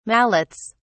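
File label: "mallets", English, uk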